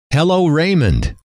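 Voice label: "hello", male; trainer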